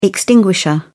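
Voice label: "extinguisher", British English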